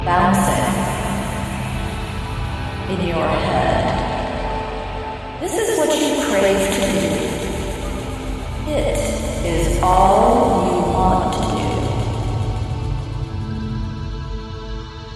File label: Fast Trance Induction